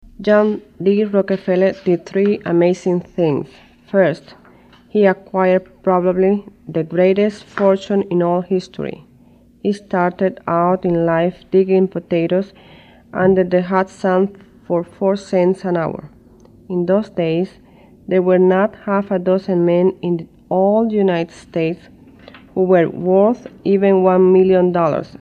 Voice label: Spanish Before